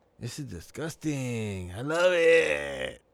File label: This Is Disgusting I Love It Latin Male